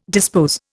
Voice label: "dispose", Indian